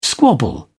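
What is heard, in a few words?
"squabble", English, British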